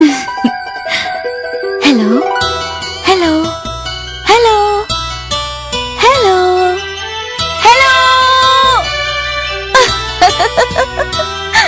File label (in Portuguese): Toques Mulher Sexy Ligando